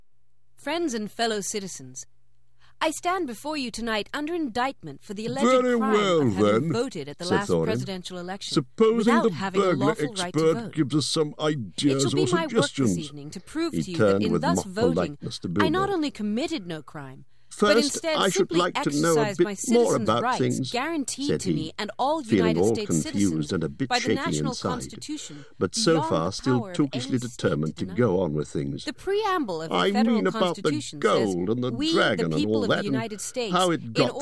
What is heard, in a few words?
Near Talker Plus Echo At Microphone